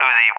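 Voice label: "Uzi", English, USA; CallSign; DCS; Allied Flight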